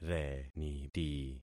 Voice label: "reniti", concat syllables; Affixing experiment